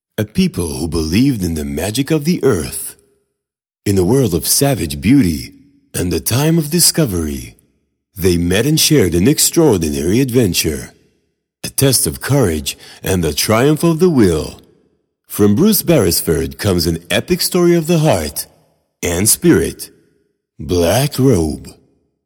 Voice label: movie trailer